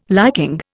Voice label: "liking", Indian